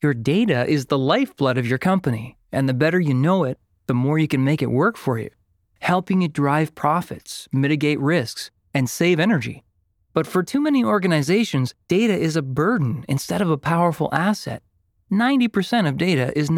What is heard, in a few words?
Corp Narr, Analyzing Data, Conversational, Informative